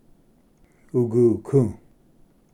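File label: "uguku", Cherokee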